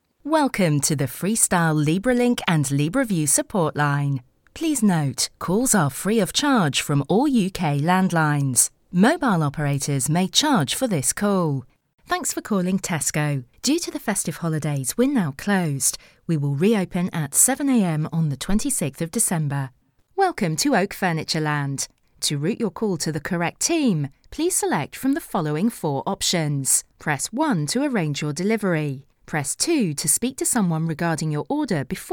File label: IVR